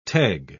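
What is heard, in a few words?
"teg", British English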